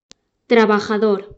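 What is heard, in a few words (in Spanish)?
"trabajador", Spanish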